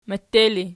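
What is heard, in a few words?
"mette", ergative, Archi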